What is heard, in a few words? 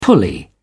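"pulley", British English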